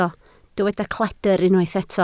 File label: "cledr", Welsh